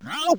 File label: Reverse Voice, Yamaha DD-7